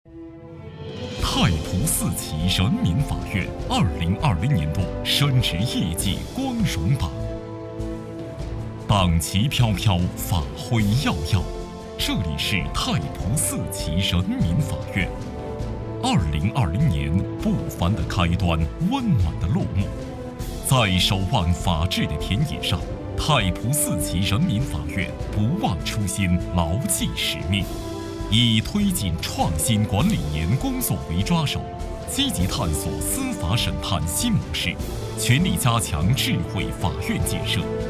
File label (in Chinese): 男,政府宣传片; 法院